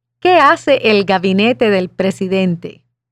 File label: Spanish